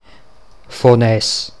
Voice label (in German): Fon Mundart